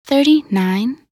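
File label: "39", English; minute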